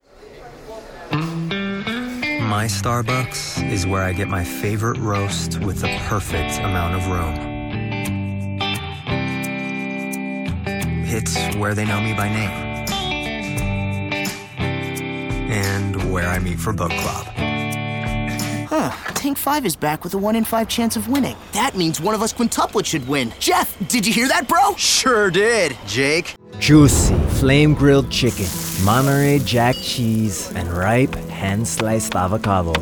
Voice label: COMMERCIAL